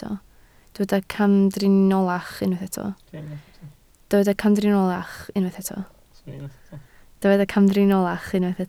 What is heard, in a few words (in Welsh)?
"camdriniolach", Welsh